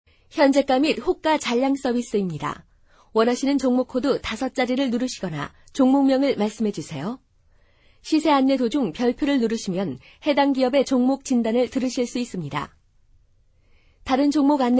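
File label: Korean, female; ARS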